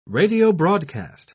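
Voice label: "radiobroadcast", British English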